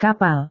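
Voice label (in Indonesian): "kapal", Indonesian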